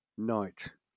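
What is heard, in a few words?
"night", British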